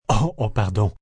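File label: Oh Pardon Me French M, male, French; VoiceBox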